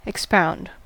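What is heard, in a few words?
"expound", American English; pronunciations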